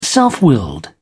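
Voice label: "self-willed", British English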